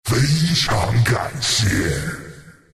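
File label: thanks, chinese; dota2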